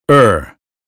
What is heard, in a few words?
us phonetics sound bird; 英语音标发音（剑桥版）